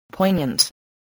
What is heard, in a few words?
"poignant", English